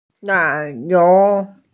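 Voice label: "cow", Mandarin; nai3niu2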